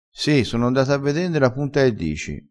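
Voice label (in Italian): "dii", dialettale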